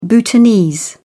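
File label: "bhutanese", British English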